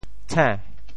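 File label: "tshe~2", Teochew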